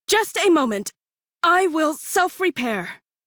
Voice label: Crew Heal Self Female en; Railjack Crew; Cephalon Suda